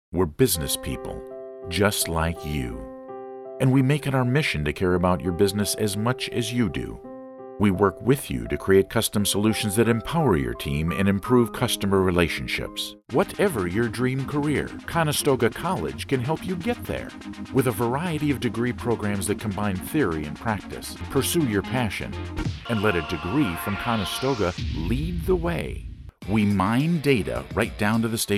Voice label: Commercial